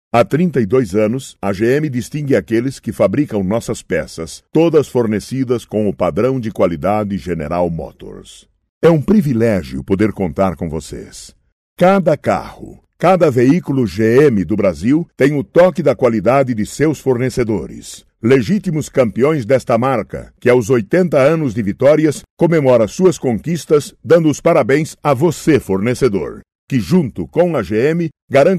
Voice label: voice over brazilian portuguese voice over talent narrator; GENERAL MOTORS Brazil